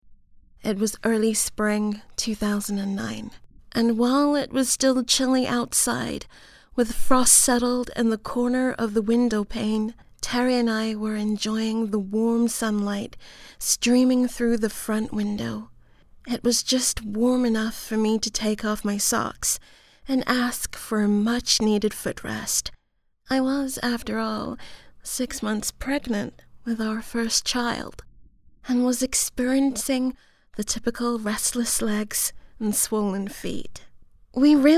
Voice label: english us and canada female